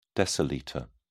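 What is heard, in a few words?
"decilitre", English, British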